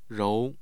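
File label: "rou2", Mandarin Chinese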